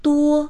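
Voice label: "duo1", Mandarin Chinese; 多; 多い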